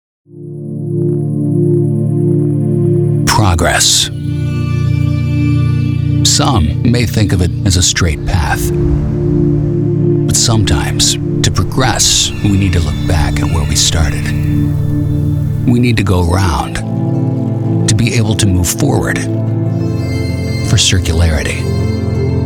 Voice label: Produktvideos Breites Publikum, native; PROFISPRECHER

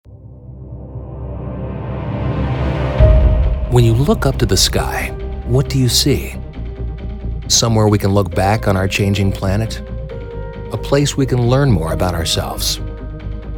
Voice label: TV Werbespots; Jugendfrei; NATIVE PROFISPRECHER

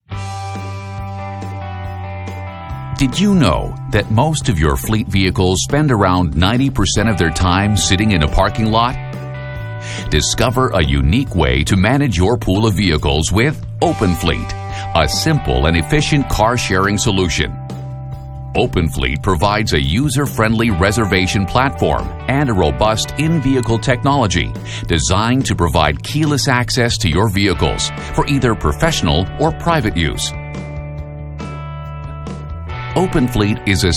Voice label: Imagefilme Profi Publikum; NATIVE PROFISPRECHER

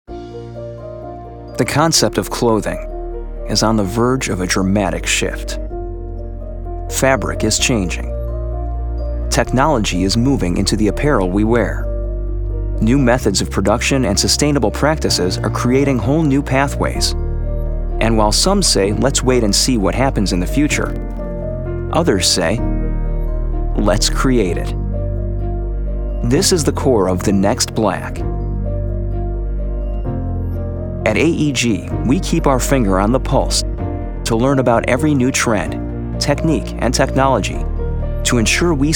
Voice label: Produktvideos Jugendfrei, NATIVE PROFISPRECHER

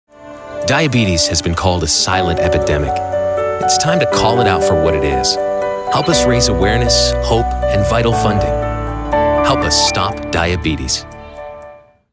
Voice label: TV Werbespots Jugendfrei; NATIVE PROFISPRECHER

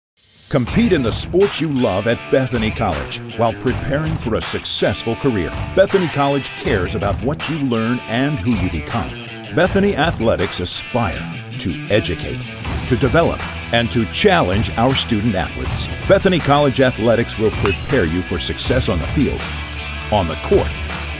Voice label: Imagefilme Breites Publikum, native; NATIVE PROFISPRECHER

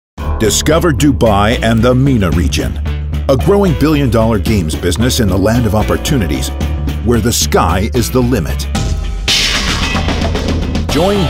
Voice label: TV Werbespots Online Werbespots; Profi Publikum; NATIVE PROFISPRECHER

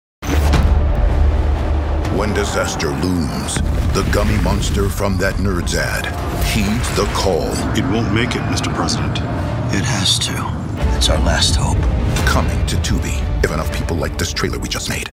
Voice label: Trailer Kinderpublikum; NATIVE PROFISPRECHER

